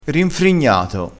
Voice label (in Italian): "rinfrignato", crespinese